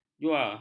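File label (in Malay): "jua", Malay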